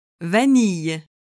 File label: "vanille", French